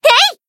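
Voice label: attack, Japanese; orleya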